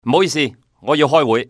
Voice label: "sorry", male; XBOX; civ